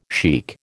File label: "shaikh", American